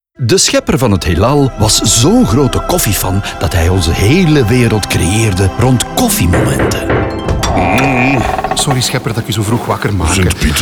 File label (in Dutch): RADIO VL; Ochtendhumeur; Koffiemarkt; Koffiemomenten